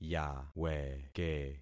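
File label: "yaweke", concatenated syllables; Affixing experiment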